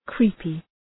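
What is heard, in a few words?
"creepy", English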